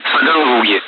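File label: Speech, Russian, air traffic control; Callsign; Soganlug; DCS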